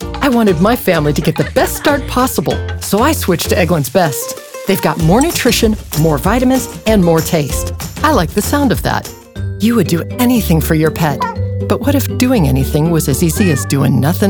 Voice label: Commercial